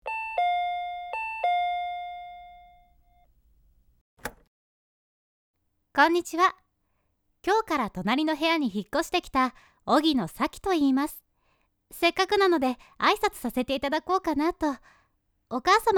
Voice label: お姉さん, ASMR; 年下大好きなお姉さんにたっぷり絞られちゃう♪

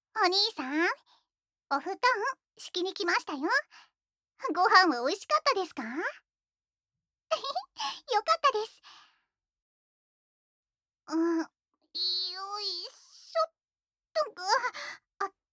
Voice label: 夜の特別マッサージ編（SEなし）, ASMR

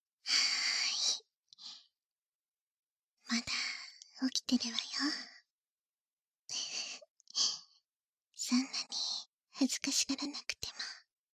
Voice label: 寝る前に囁耳舐め手コキカウントダウン, バイノーラルマイク収録 効果音あり大きめ

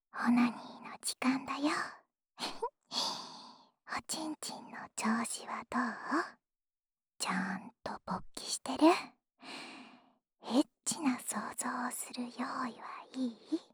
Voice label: オナサポ用シコシコボイスループ（左耳）, ASMR

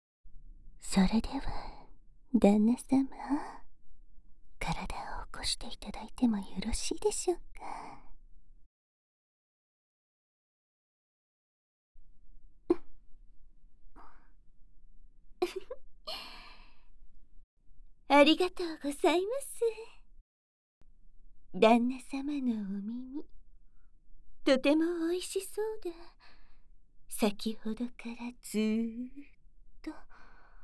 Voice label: 耳舐めカウントダウン射精誘導 簡易モノラル編集 効果音なし

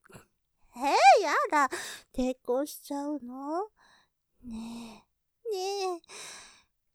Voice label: 言葉責め, ASMR; やだぁｗ抵抗しちゃうのｗ; ループで楽しむ！シェフの気まぐれ抜き抜きコース; モノラル

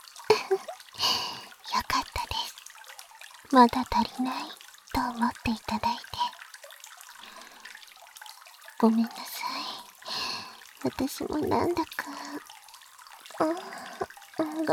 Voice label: 抱きつき囁き焦らし, ASMR; 簡易モノラル編集 効果音なし

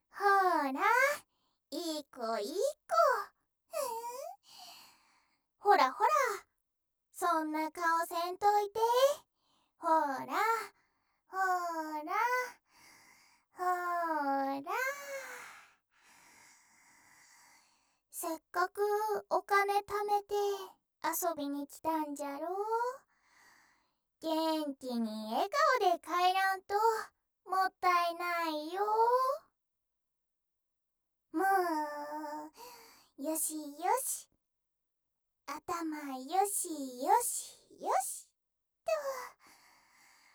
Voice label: いいこいいこの膝枕, ASMR

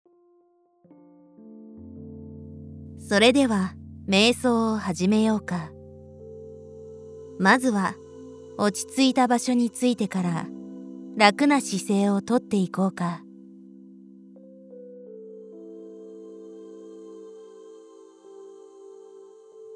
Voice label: 瞑想フリーワーク; フリーワーク用瞑想音源

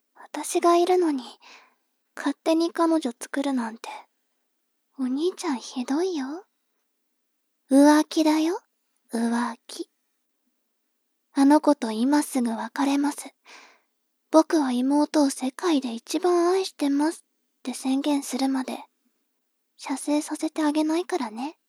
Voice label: 妹 台詞

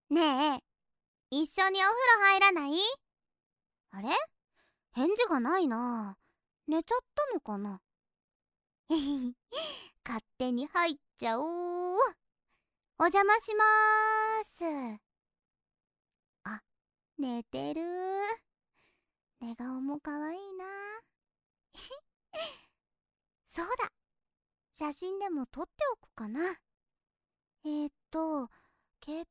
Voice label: 三人で一緒にお風呂, ASMR

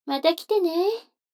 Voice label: 女の子台詞

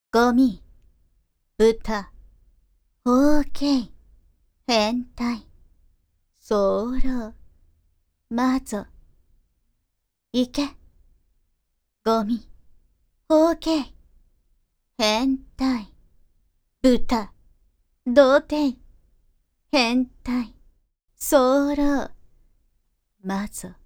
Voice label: アドリブ罵倒

